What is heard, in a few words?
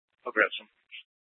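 police dispatch, Scottsdale PD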